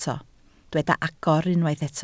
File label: "agor", Welsh